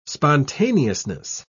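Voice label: "spontaneousness", British English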